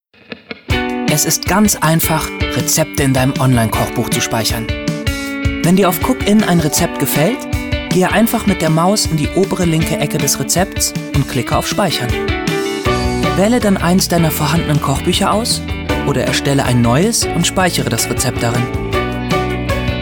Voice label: male german commercial; ideasonora